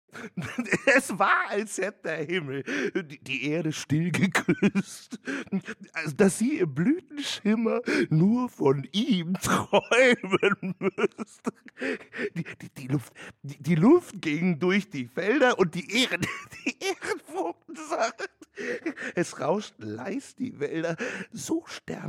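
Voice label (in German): fröhlich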